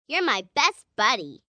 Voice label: Youre My Best Buddy, 1 girl, 9 yrs; Talking Sound Effects; VoiceBox; Twistedtracks